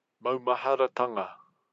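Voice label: "maumaharatanga", Māori; heritage defined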